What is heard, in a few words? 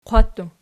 "qwattu", Archi